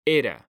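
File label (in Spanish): "era", Spanish, male; mekanta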